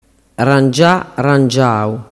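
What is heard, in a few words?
"rangiarangiau", Sicilian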